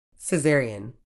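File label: "caesarean", British English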